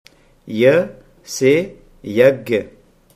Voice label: "yagye", Hindi